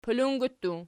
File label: "pulungi", Archi